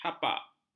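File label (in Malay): "hapak", Malay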